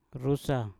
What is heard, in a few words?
"rusa", Mpur